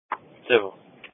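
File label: Police Patrol (Dispatch)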